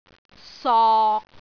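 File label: "sok6", Cantonese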